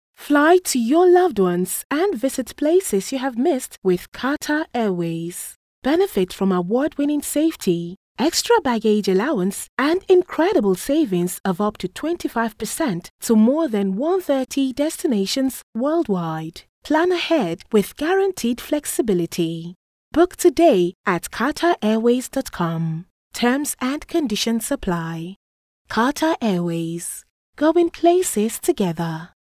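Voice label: English African Female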